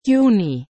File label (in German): "Juni", German